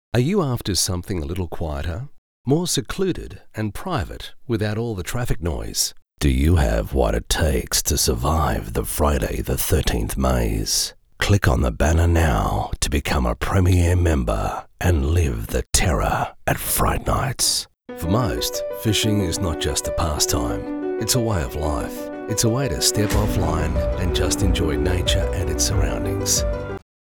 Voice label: URBAN

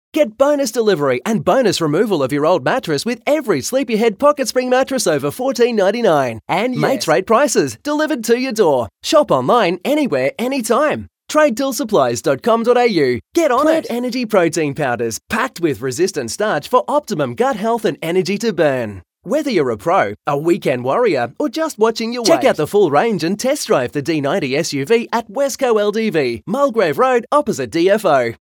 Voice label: Voiceovers TV Radio Online; Hardy Audio

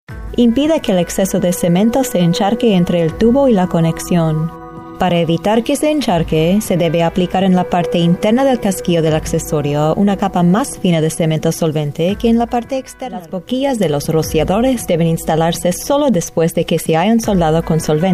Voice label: Spanish Industrial e-learning

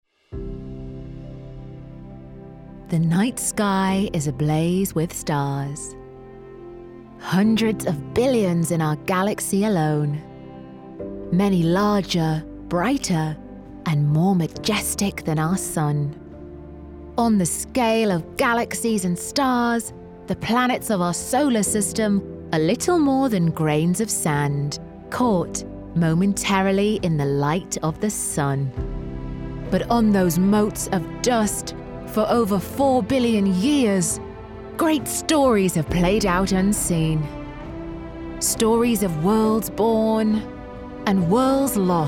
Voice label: Female English Documentary

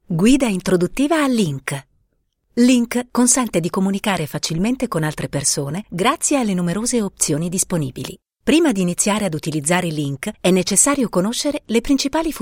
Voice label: Tutorial; Lync

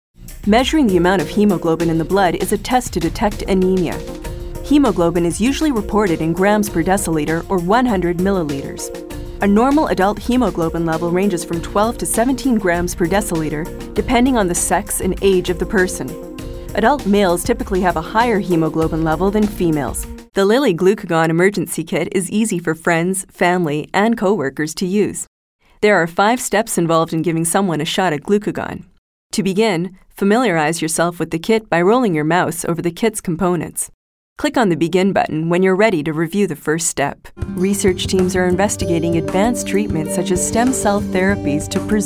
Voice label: medical narration

